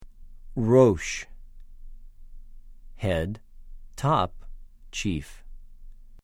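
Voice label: "רֹאשׁ", Hebrew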